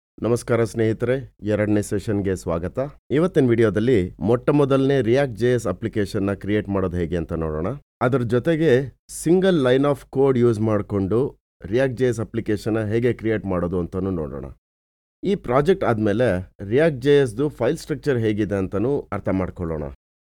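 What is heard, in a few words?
Kannada male Elearning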